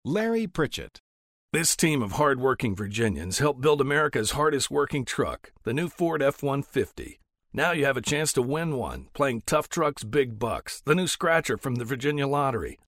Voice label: TOUGH